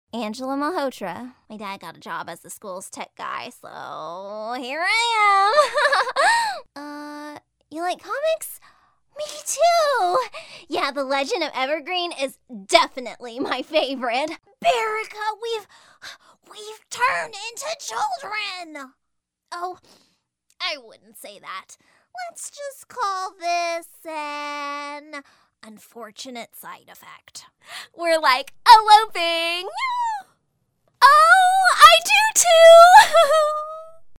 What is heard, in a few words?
Female Animation